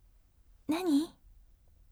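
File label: "nani", gentle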